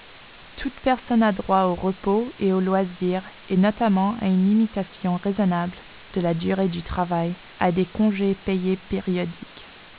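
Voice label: French narrowband, speech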